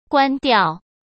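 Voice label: "关掉", Mandarin Chinese; chinese-flashcards; hsk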